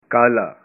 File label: "black", punjabi